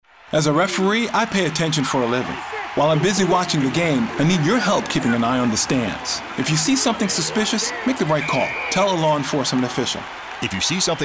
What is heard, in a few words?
radio football english